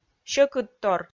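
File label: "сьӧкыдтор", Komi, female; kpv audio dict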